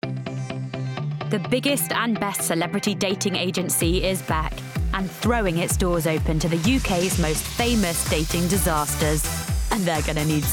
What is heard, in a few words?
female English promo; celebs go dating; Devine Voices